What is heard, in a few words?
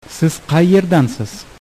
where from, uzbek